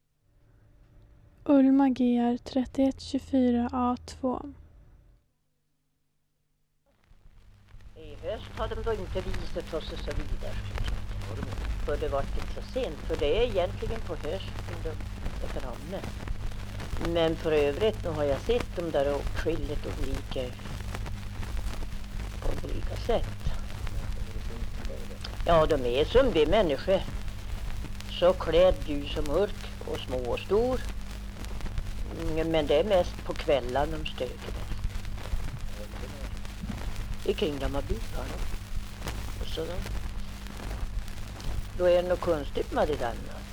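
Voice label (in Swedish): Ragunda Jämtland, dialekter; Folkminnen; Svenska sagor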